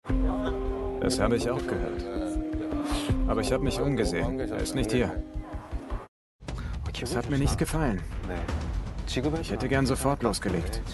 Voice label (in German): DE Voiceover Serie; Voiceover Netflix Serie